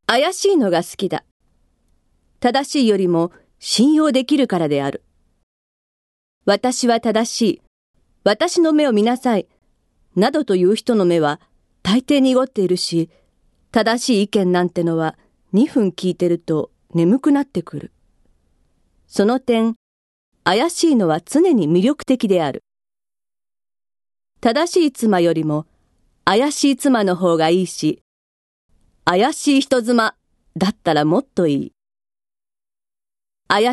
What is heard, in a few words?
female voice